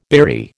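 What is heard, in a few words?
"beery", American English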